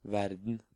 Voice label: "Verden", Norwegian